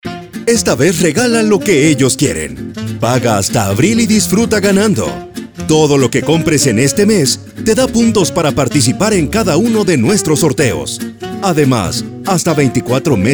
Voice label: Male Spanish Promo